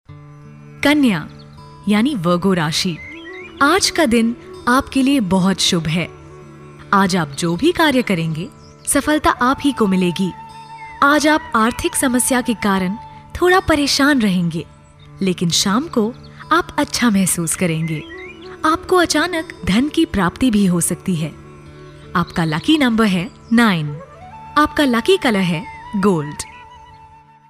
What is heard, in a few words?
hindi narration; professionelle indische Sprecherin